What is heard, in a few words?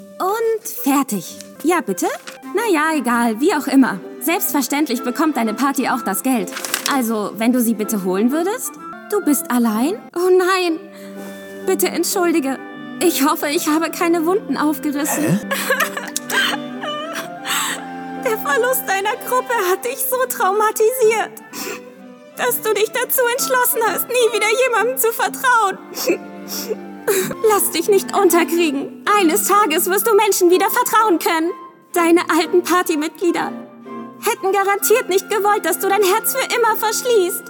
Anime; voice; My Isekai Life